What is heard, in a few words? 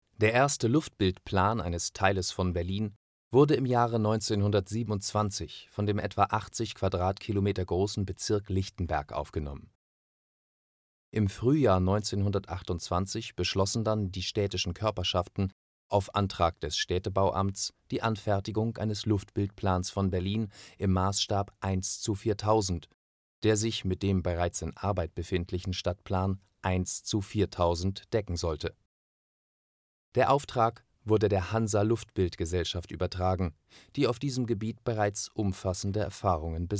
german audioguide